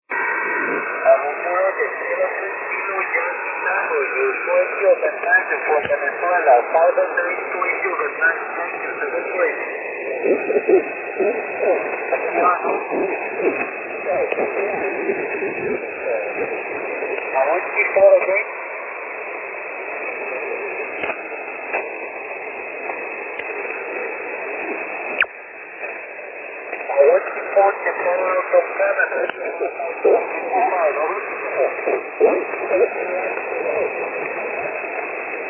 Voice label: 40m ssb